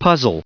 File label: "puzzle" en-us recite